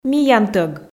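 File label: "миянтӧг", Komi, female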